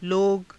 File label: "loga", Hindi